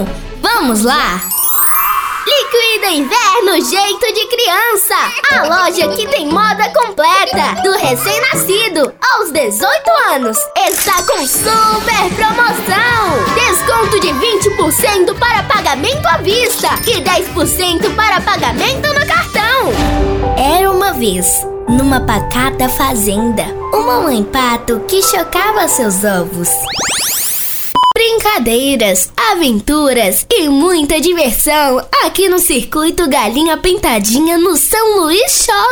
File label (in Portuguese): infantil